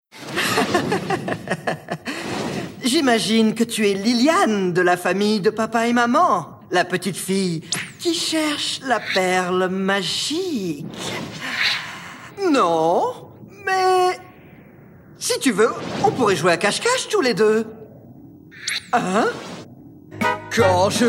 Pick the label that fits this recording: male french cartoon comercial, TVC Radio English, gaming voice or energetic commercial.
male french cartoon comercial